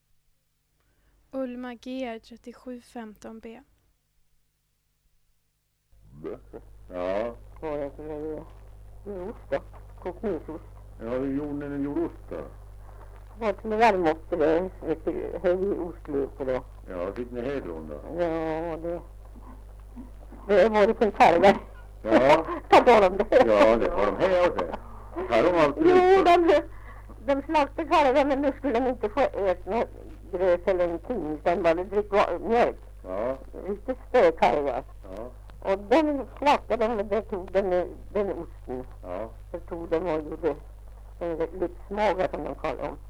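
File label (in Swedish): Hille Gästrikland, Svenska sagor, dialekter; Folkminnen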